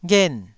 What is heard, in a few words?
"ghen4", Taiwanese syllable